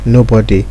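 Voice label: "nobody", Nigerian Pidgin, male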